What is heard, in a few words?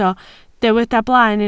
"blaen", Welsh